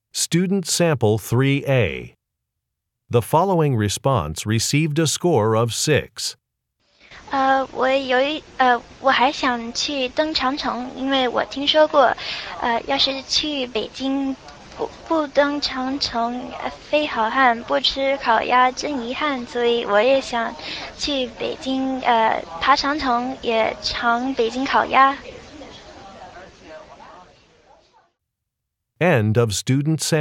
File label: chinese conversation; Conversation 3 Sample A (Score of 6)